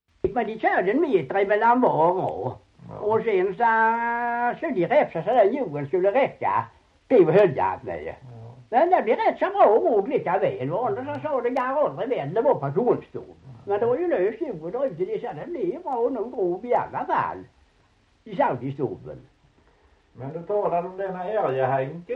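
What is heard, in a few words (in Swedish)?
Ramdala Blekinge, dialekter svenska sagor; Folkminnen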